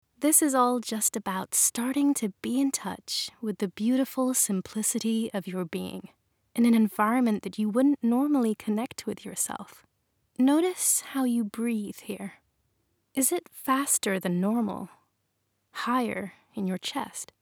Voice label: E-learning US accent meditation calm relatble intriguing